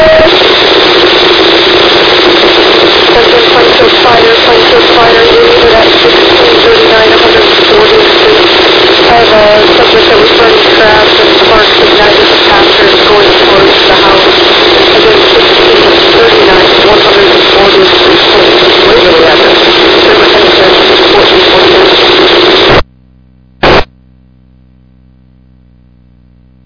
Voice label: Fire, dispatch